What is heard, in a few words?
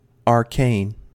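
"arcane", US English; pronunciations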